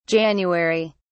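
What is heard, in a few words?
"january", British English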